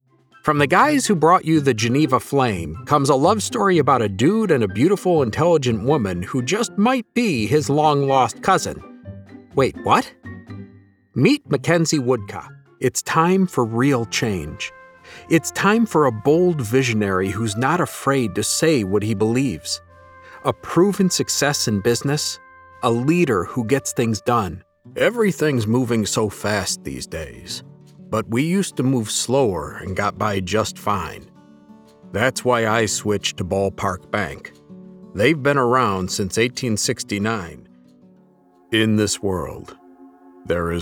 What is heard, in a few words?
Commercial